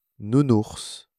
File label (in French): "nournours", French